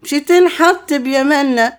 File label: OLD WOMAN, Arabian Traditions; USB Soundscan vol.43; AKAI